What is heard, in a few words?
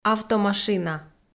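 "автомашина", Komi, female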